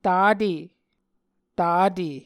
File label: "tatE", Muscogee